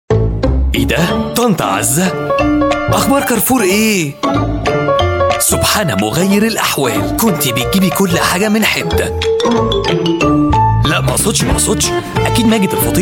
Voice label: Arabic voice over, male commercial